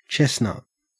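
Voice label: "chestnut", Australian English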